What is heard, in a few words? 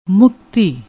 "mukti", Punjabi